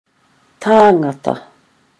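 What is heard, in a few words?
"tangata", Māori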